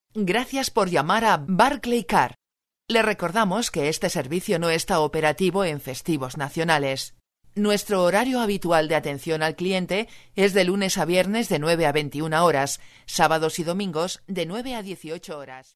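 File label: Spanish, female, interactive voice response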